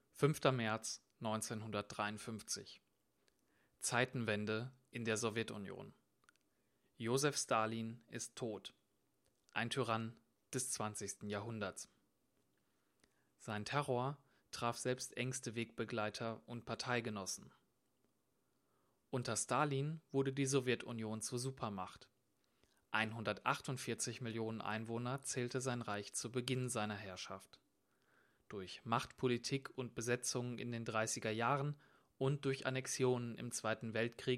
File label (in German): doku